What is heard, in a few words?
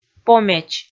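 "помеч", Komi, female; kpv audio dict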